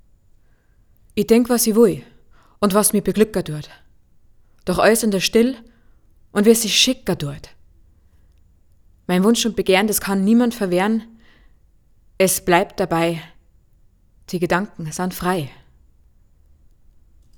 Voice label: Bayerisch

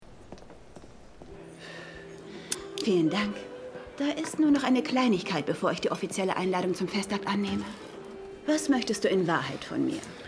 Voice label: telefonistinnen heiratsantrag

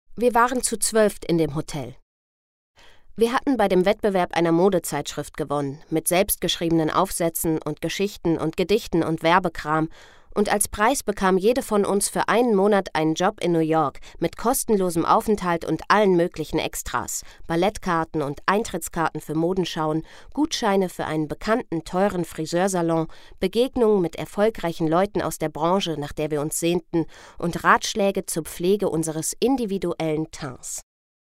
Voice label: Hoerbuch

